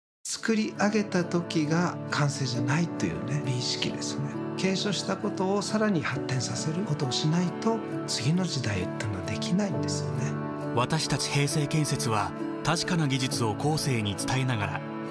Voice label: japanese others; Japon; Japonais